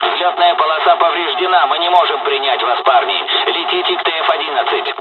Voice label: dialog, dialogs russian; War Thunder